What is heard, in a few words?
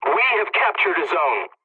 pilot capture zone ally, english; War Thunder